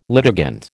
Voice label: "litigant", American